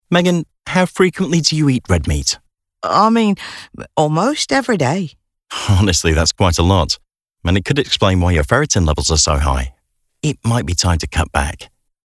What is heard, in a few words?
Conversational